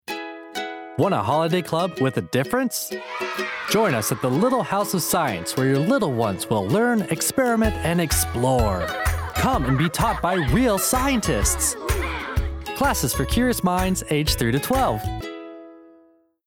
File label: Bright, fun, upbeat; Science Camp